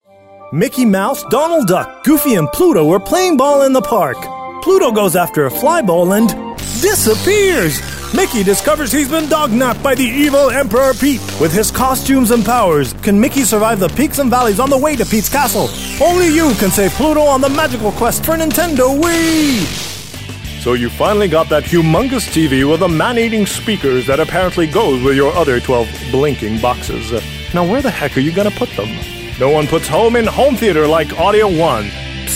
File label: english